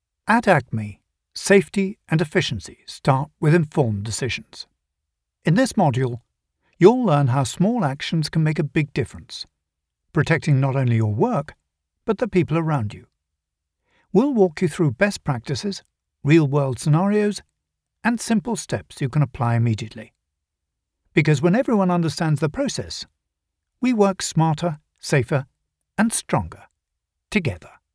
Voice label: Training video; English (British)

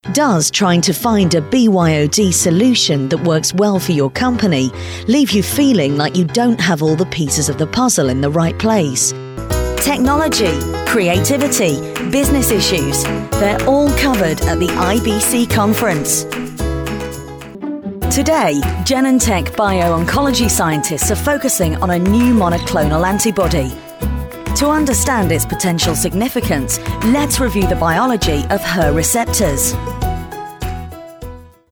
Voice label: Product Narration

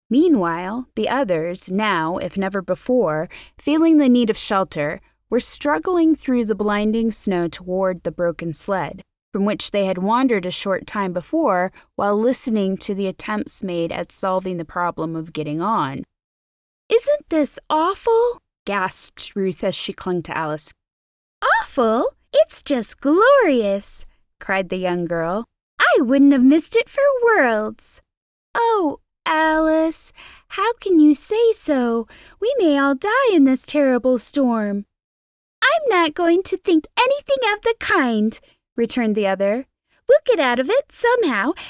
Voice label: British Female Voice

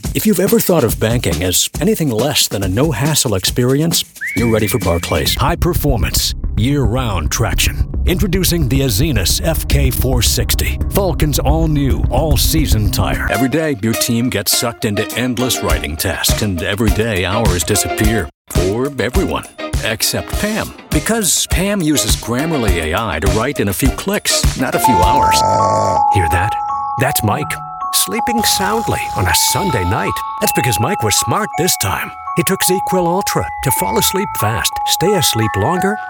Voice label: COMMERCIAL

